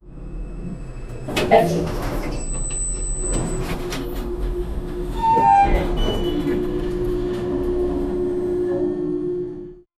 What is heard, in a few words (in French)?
deux personnes appellent, buttons